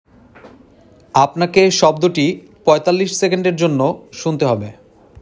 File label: male sound given